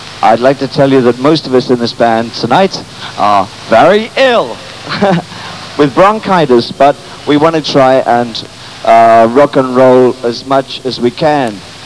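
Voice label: in-between song chatter; "I would like to tell you that most of us in this band tonight are VERY ILL!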